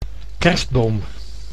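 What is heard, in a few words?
"kerstboom", Dutch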